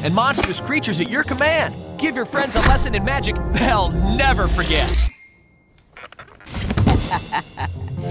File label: Trading Card TV; Harry Potter